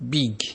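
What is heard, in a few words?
"big", Nigerian Pidgin, male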